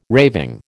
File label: "raving", American